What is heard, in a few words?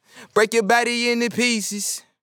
Vocal Phrase Break Your Body 90 BPM, Dry; Vocal Phrases; Cymatics; Euphoria Vocal Sample Pack